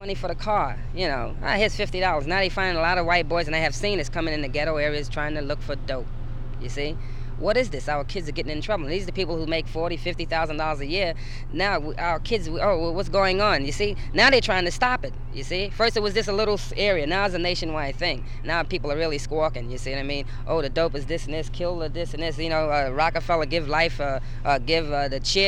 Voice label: New York interviews